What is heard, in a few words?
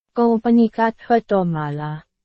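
Burmese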